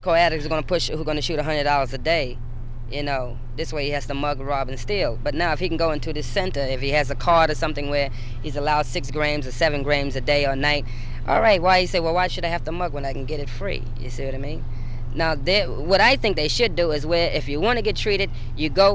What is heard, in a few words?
New York interviews